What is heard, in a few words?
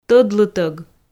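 "тӧдлытӧг", Komi, female; audio dict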